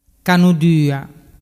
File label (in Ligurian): "canudua", dialettu